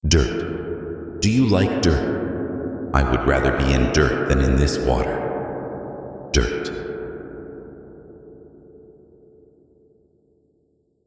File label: narration; realmshift